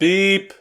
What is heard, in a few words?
Voices urgent; the looker